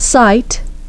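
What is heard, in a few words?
"site", English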